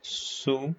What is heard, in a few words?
short voyels arabic alphabet